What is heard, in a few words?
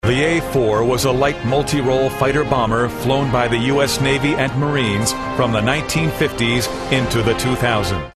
narrative